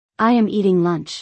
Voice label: I am eating lunch - english; Food and Eating